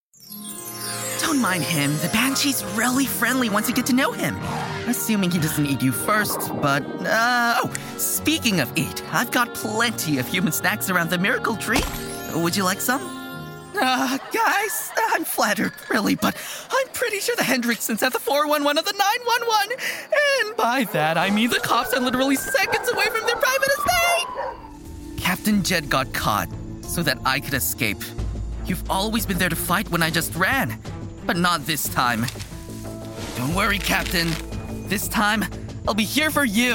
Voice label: Voice Actor; BigMouthVoices